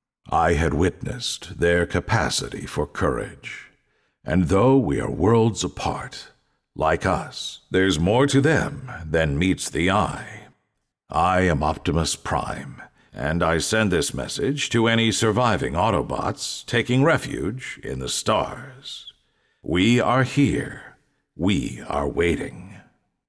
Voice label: game narrator commanding reflective deep forelorn

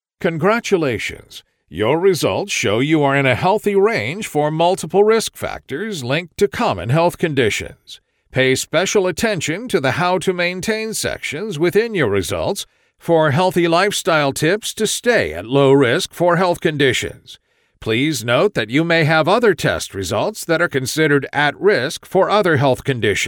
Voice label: narration health education

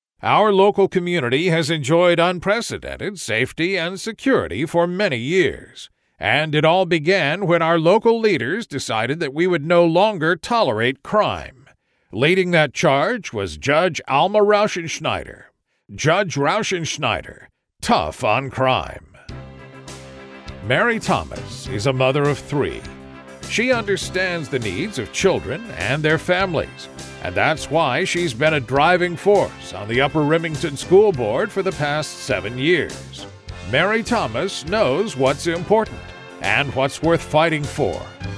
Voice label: Political Voice

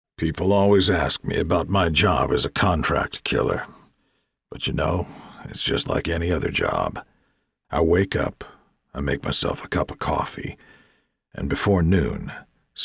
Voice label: Character Weary Gritty Dark

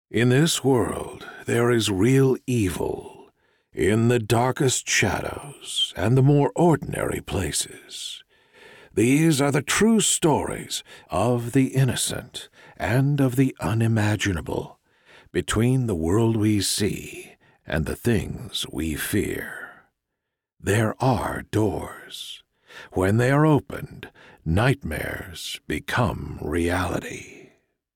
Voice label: Game Trailer; Doors

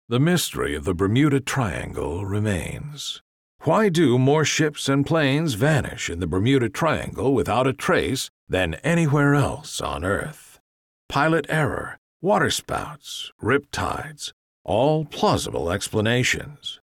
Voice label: TV Promo Dark Mysterious

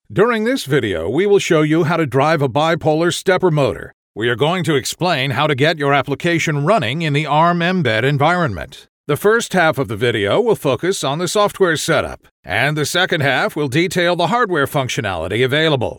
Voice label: Narration Tech eLearning

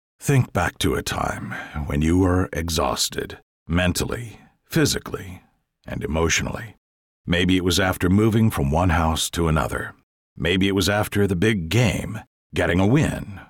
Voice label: Narration Wise Mature Weary

